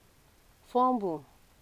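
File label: "fumble", Nigerian Pidgin, female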